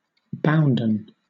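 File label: "bounden", British English; pronunciations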